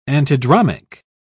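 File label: "antidromic", British English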